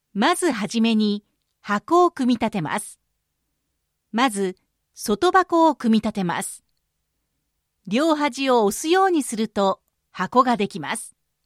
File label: japanese e-learning